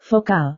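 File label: "vokal", Indonesian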